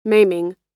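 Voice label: "maiming", American English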